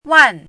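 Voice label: "wan4", Mandarin pinyin syllable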